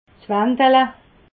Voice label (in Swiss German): "wandele", alsace